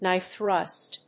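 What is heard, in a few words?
"knife thrust", English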